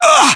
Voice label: pain, voiceovers english

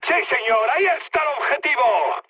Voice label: near waypoint, mood high, speech; War Thunder

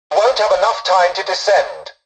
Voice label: tutorial dialogue, english; War Thunder

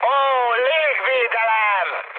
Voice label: damaged aa, mood high, speech hun; War Thunder

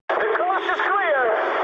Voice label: eng chat, speech eng

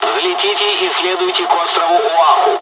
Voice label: takeoff, dialogs russian; usa; War Thunder

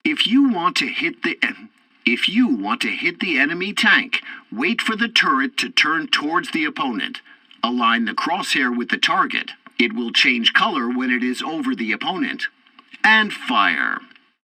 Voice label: tutorial tank arcade cursors explanation, english; War Thunder

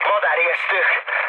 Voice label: enemy detected, speech hun; War Thunder

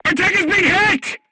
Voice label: voice message tank hit, vietnamese; War Thunder; crew tanks

